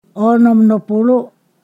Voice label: "sixty", Ponosakan